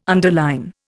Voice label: "underline", Indian